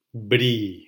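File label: "bri", Spanish syllable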